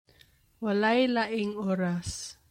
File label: Cebuano